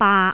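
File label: "baak3", Cantonese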